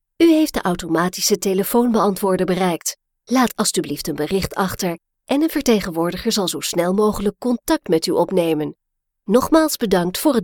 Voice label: IVR multilingual demo

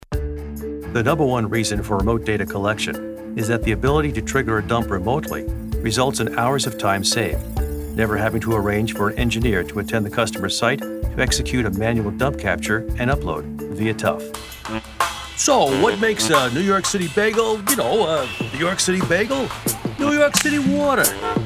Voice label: Narration

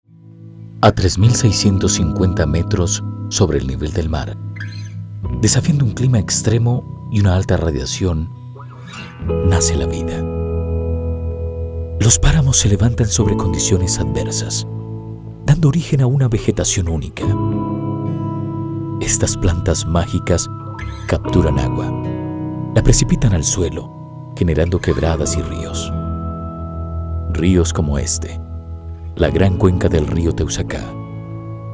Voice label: Videos Documentaries

